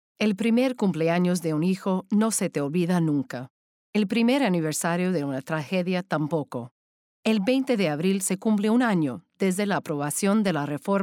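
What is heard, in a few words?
Spanish Latin American Narration Host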